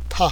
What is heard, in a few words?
"tha", tibet alphabet